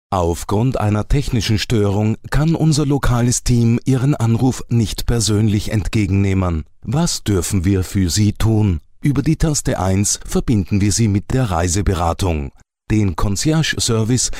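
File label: Male German Austrian IVR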